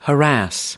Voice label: "harass", us